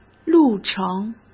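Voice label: "路程", Mandarin Chinese; hsk; chinese flashcards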